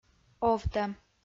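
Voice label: "овтӧм", Komi, female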